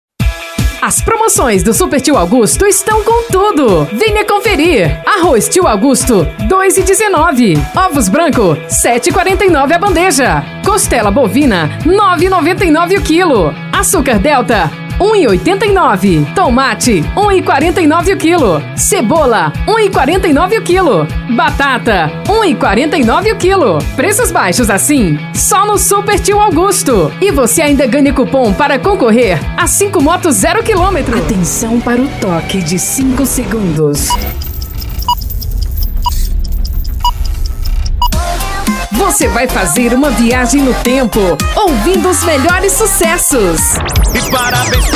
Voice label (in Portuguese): locutora; voxmidia